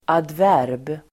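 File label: "adverb", Swedish; Uttal: [adv'är:b]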